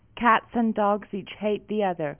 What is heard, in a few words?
Female